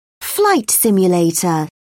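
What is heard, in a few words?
"flight simulator", British English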